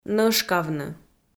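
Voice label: "нӧшкавны", Komi, female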